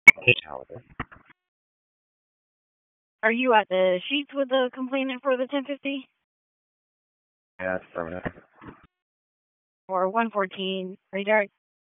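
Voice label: Police Southwest District Dispatch, p25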